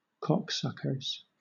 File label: "cocksuckers", en